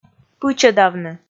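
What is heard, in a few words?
"пычӧдавны", Komi, female; kpv audio dict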